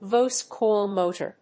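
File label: "voice-coil motor", English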